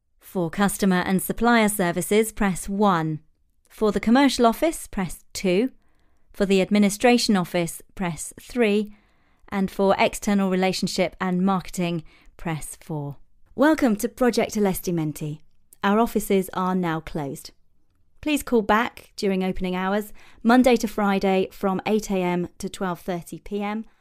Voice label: On Hold

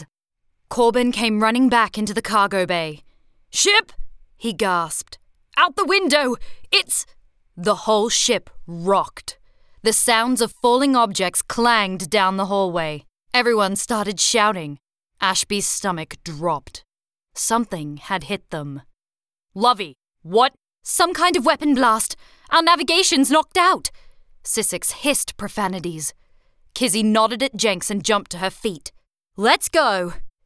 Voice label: Audiobook

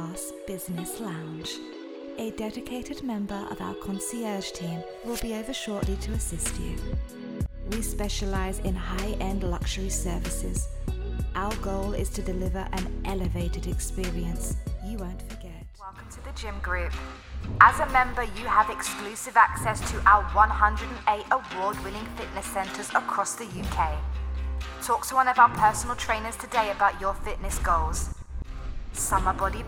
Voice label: corp narative

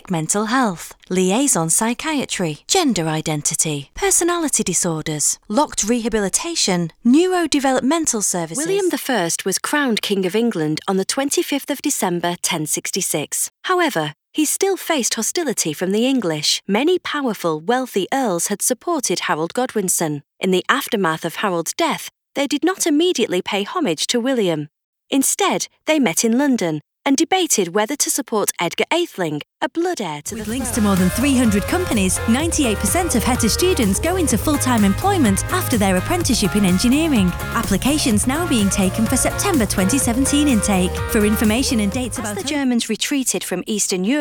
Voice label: corporate and e-learning showreel

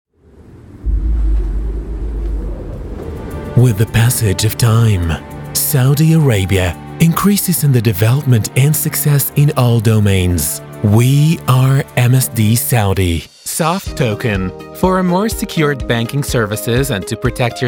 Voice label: English

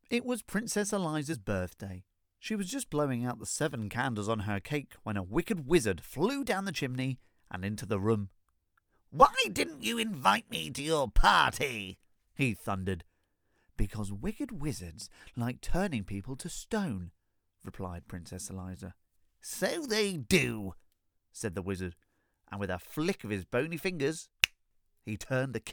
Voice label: Audiobook Childrens Audiobook

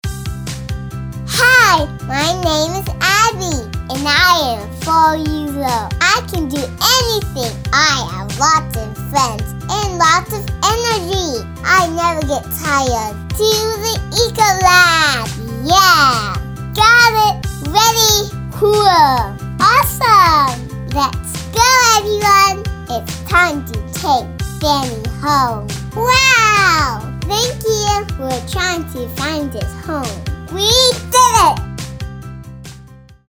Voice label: animation sample

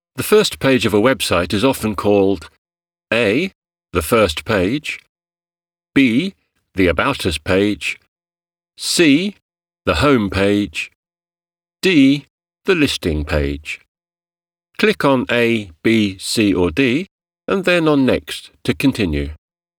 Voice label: elearning

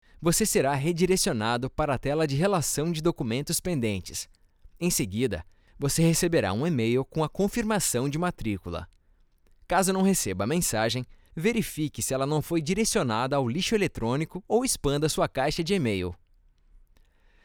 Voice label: E-learning; LOC

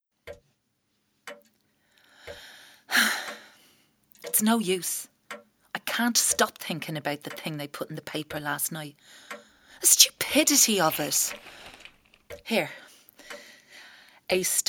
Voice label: Audioplay

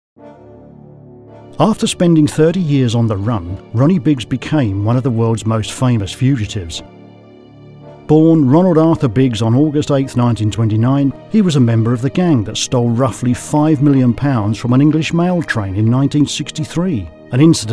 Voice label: Narrative

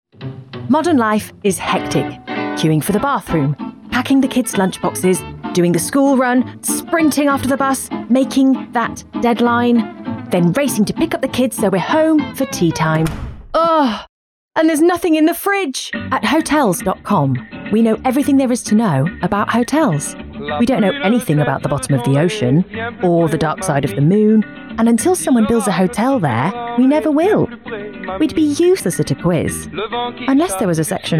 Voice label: Commercial

